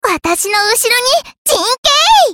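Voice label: character voice Change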